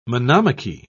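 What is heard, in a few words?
"monomachy", British English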